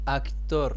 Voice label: Actor spanish